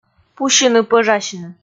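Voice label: "пусьыны-пӧжасьны", Komi, female; kpv audio dict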